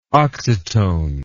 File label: "oxytone", English word